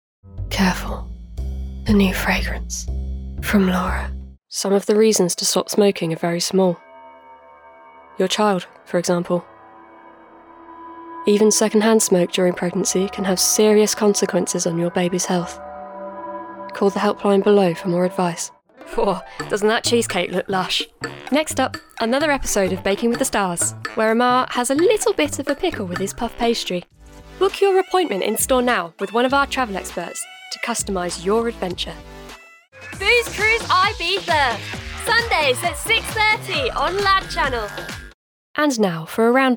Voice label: Commercial and Promo